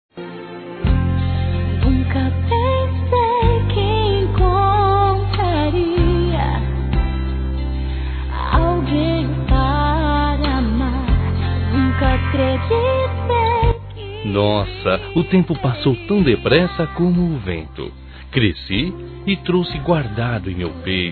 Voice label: ANIV. MÃE M

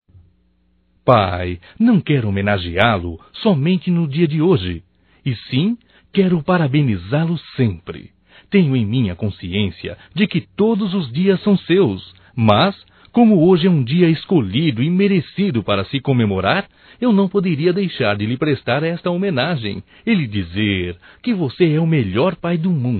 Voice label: Dia dos Pais Masculino

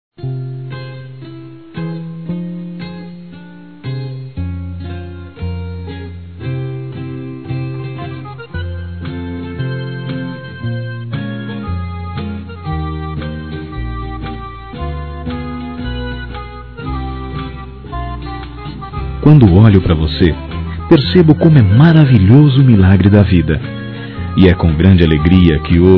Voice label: Aniversário Neto Masculino

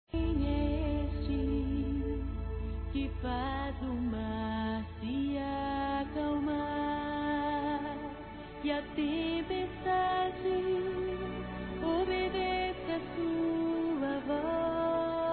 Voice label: NATAL E ANO NOVO PARA IRMÃ, masc; Misto

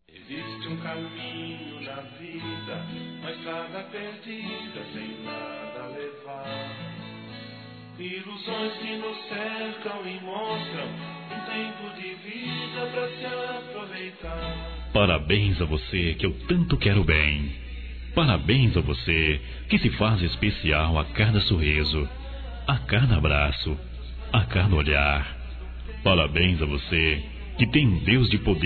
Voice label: ROMANTICO M, Telemensagens; ANIV. Evangelico

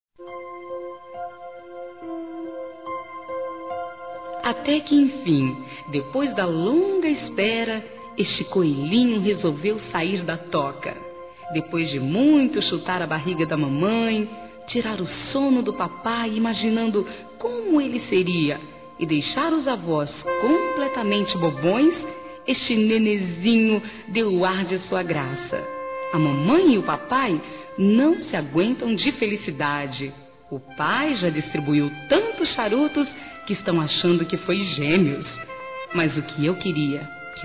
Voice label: MATERNIDADE F; Aniversários; Aniversários diversos; fem

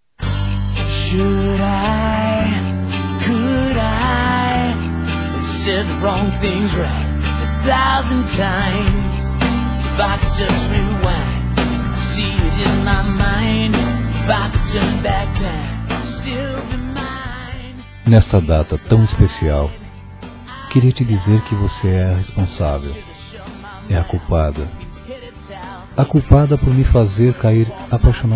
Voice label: UM ANO DE NAMORO M, Telemensagens, masc; Telemensagens 2017

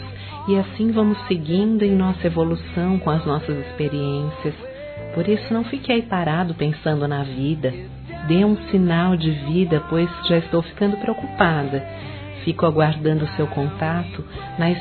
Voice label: Saudades Amigo Feminino; Telemensagens

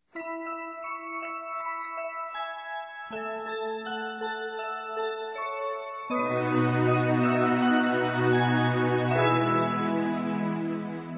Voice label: Parabéns Pais pelo Batizado f, Telemensagens, Gospel; fem; Telemensagens 2017

